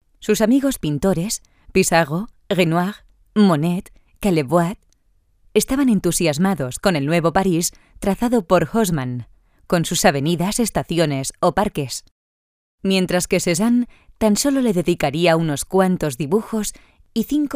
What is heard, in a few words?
spanish f narration, spanish voice demos